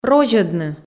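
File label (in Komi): "розьӧдны", Komi, female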